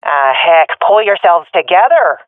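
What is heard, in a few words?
round losing, swat female; vo; Counter Strike Model Pack; CS:GO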